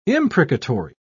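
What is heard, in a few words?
"imprecatory", British English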